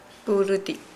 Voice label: Nukuoro; hug